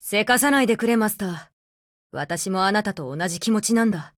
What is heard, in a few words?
LOADING JP; APC556; 少女前线